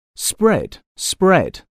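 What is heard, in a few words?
"spread", English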